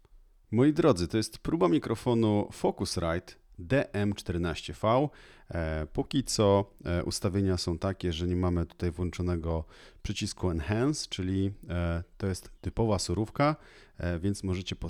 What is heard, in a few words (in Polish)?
dm14v bez podbicia